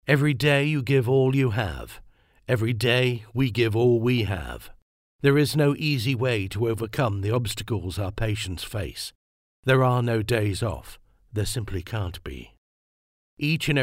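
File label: EMPHATIC SMOOTH CHARACTERFUL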